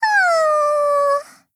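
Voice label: LOW MOOD, Japanese; Dusevnyj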